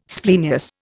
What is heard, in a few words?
"splenius", Indian